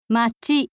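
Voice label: "machi", Japanese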